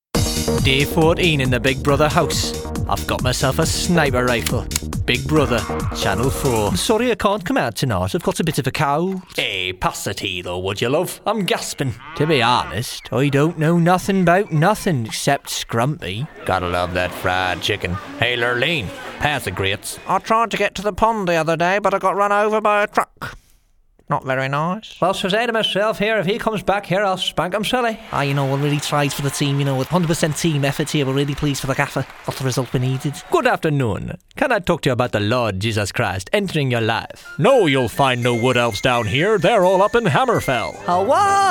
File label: accent